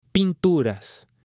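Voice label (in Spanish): "pinturas", Spanish, male; JUGUETES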